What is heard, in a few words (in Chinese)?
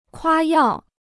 "夸耀", Chinese